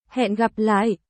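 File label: Vietnamese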